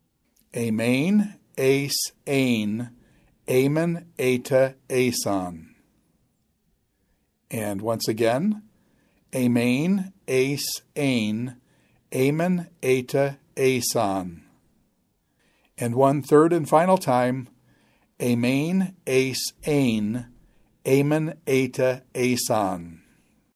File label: Quick Chant Imperfect of Eimi